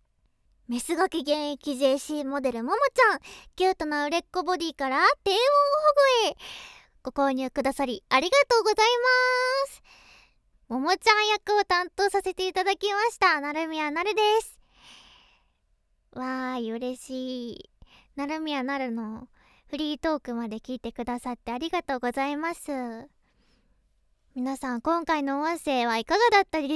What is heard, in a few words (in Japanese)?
フリートーク; SEなし